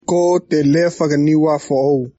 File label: niuafoou